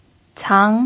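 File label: "cang", pinyin, second tone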